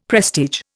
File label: "prestige", Indian; Dictionary